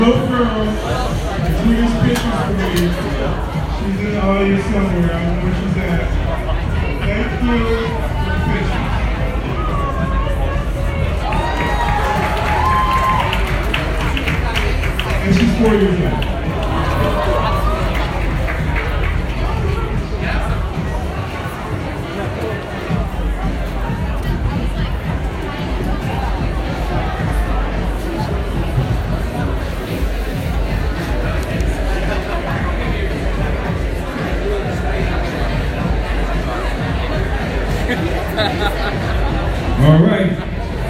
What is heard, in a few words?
break MC audience background music; hair wars 2